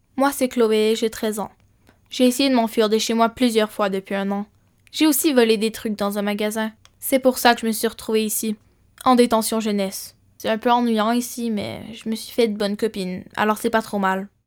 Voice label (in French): francais normatif, 14 ans